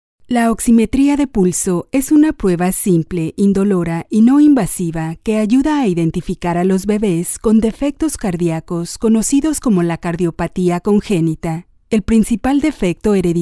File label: locutora mexicana mexican voiceover